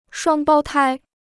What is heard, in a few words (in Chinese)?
"双胞胎", Chinese